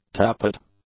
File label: "tappet", english; nouns